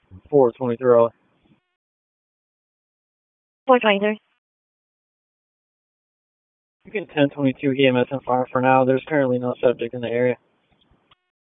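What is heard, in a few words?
Raleigh Police Southeast District Dispatch, p25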